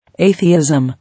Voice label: "Atheism", English